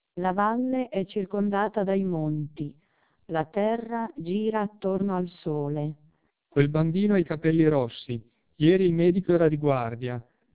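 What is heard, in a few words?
twelp 1600 Italian speech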